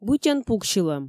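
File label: Komi, female; бытьӧн пуксьылӧм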